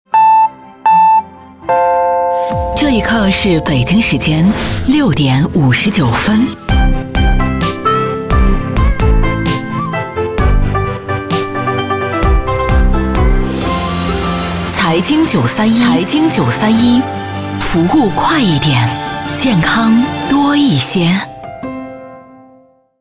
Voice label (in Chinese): 女 电台报时 悠扬版